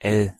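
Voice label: "l" German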